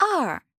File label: Chinese Number